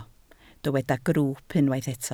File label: "grwwp", Welsh